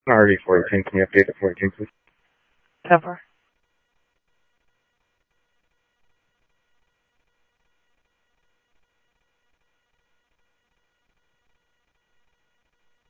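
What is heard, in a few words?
Mesa Police Department, scanner audio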